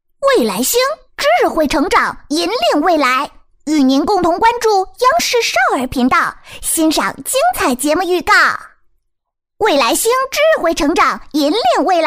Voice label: 女 男童声 少儿频道 卡通人物; 央视少儿频道; 蒙牛未来星

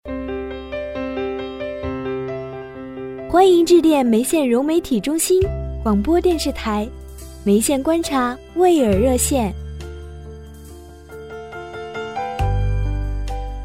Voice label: 女, 电话彩铃; 年轻甜美; 甜美亲切; 眉县观察

